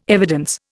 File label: "evidence", Indian